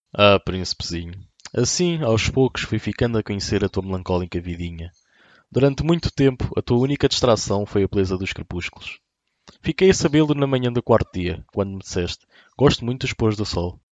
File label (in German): Portugiesisch, Almada